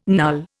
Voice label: "null", Indian